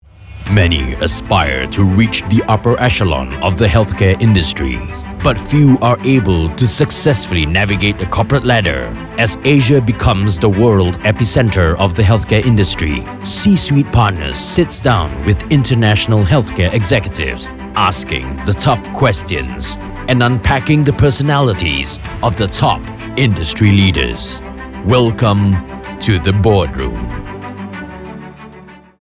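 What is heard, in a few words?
male voice over English Announcer Low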